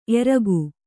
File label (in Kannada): "ಏರಿಕ್ಕು", Kannada